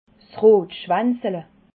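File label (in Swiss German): "rotschwanzle", Alsatian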